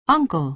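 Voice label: "uncle", American English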